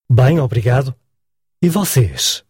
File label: fine, portuguese